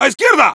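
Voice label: Heavy head left, Spanish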